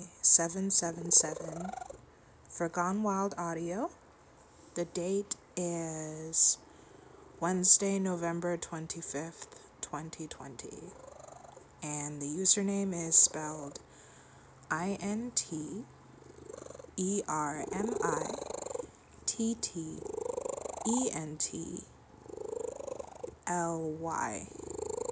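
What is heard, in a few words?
Female verification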